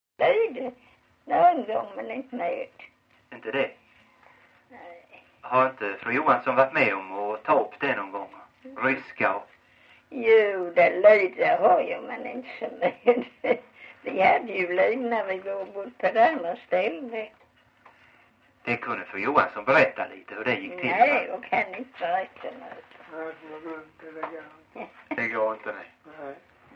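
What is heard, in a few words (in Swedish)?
Farstorp Skåne, dialekter; Folkminnen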